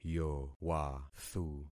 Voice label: "yowathu", concat syllables; Affixing experiment